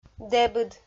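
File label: "дэбыд", Komi, female; kpv audio dict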